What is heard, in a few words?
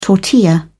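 "tortilla", English, British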